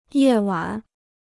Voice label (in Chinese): "夜晚", Chinese